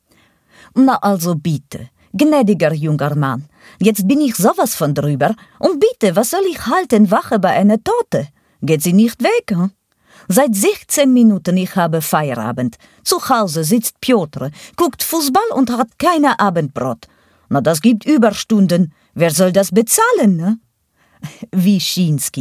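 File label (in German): Hörprobe Akzent Osteuropa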